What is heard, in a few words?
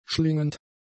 "schlingend", German; adjectives